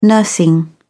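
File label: "nursing", English, British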